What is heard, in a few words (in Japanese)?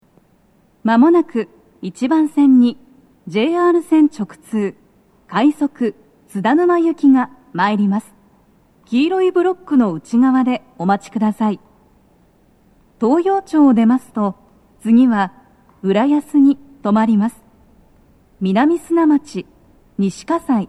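接近放送, 女声, 快速 津田沼行き; BOSEでの収録です。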